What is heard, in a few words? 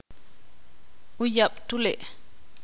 ixil